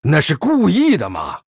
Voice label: Male Human Oops; zh